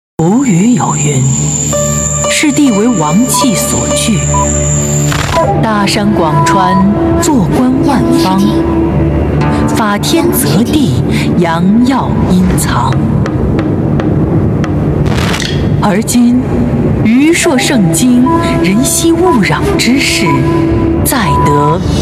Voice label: 女 宣传片; 於铄盛京

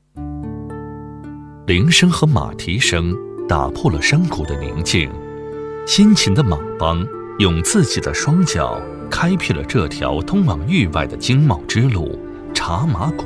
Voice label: 男 纪录片; 茶马古道

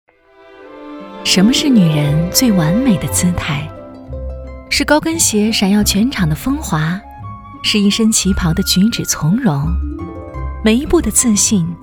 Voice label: 女 广告 私人定制理念片

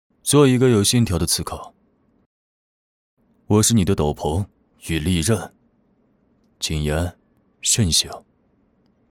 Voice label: 男 自走棋手游; 光羽斥候

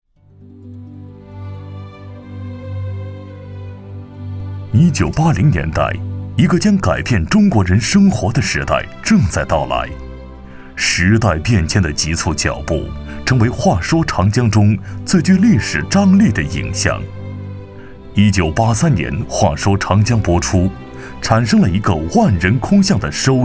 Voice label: 男 纪录; 再说长江

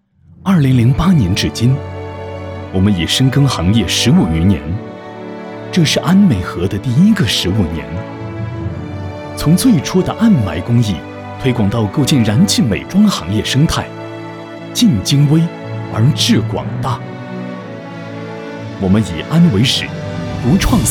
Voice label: 男 专题 企业宣传

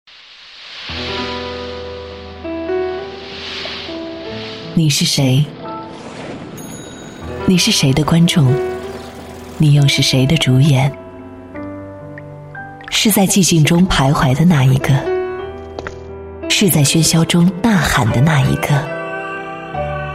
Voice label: 女,品牌广告; 首星

